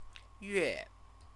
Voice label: "yue", Chinese; month, moon; noun

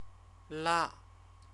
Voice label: "la", Chinese; CTCFL; University of Oxford

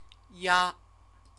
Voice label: "ya", Chinese; CTCFL; University of Oxford

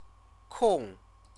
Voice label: "kong", Chinese

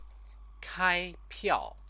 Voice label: "kaipiao", Chinese

